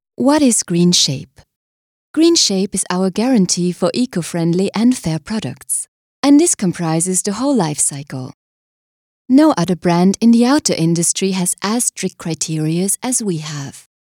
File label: german female corporate